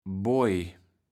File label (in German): "Boaj", platt